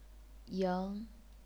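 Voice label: "ying", chinese pinyin, second tone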